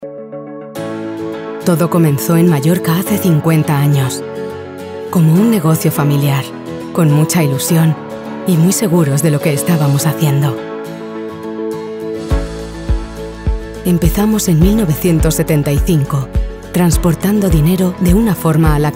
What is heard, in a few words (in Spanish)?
Corporativo emotivo; Voz femenina adulta, versátil y de tono medio-grave